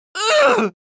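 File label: pain severe, Spanish; Scout; Scout audio responses/es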